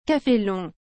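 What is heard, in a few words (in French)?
"cafe long", French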